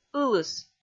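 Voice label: "ылыс", Komi, female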